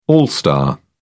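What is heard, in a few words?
"all star", English, British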